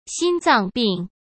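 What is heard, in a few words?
"心脏病", Mandarin Chinese; chinese-flashcards; hsk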